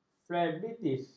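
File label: "flebitis", Malay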